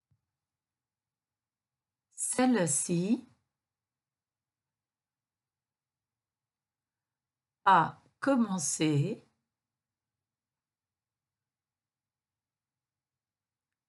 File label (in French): Dictee; Pinocchio